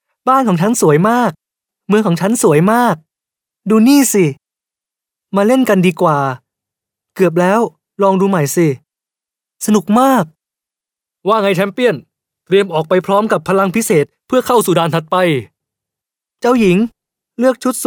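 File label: Thai male children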